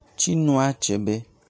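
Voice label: "Chinua Achebe", Igbo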